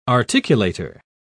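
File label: "articulator", English, British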